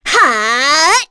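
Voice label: Vox Casting, Korean; Cecilia; kingsraid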